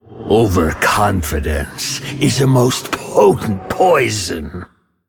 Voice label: Male Undead Idle